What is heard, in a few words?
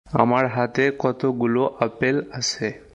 Bengali, Bangladeshi Accent